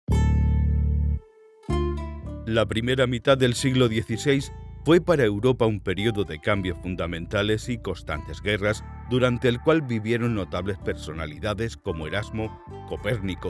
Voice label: spanish e-learning